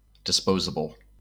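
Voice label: "disposable", US English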